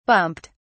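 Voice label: "bumped", British English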